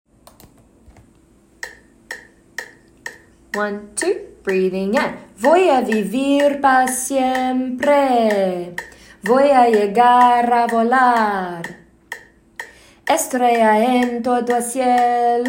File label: Spoken Spanish Phrases (126bpm); Fame The Musical - Holiday Pack